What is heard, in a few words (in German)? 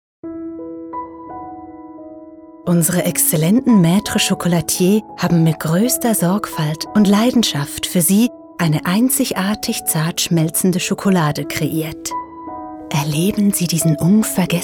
Radiowerbung Schokolade